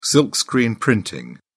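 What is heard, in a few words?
"silkscreen", British English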